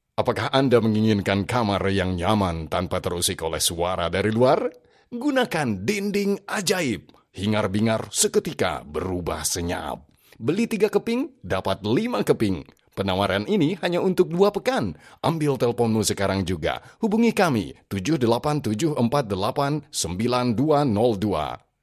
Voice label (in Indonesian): Sale Indonesia Pengisi Suara Pria; 商品紹介